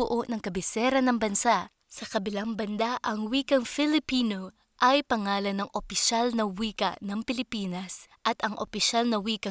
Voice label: Narration Tagalog